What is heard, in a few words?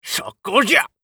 oldman attack